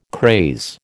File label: "craze", American